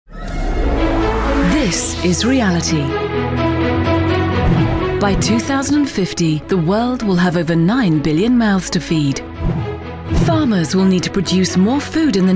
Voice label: corporate